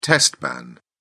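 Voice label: "test ban", English, British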